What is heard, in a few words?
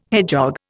"hedgehog", Indian